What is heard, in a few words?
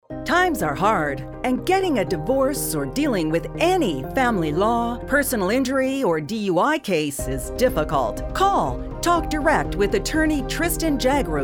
RADIO AD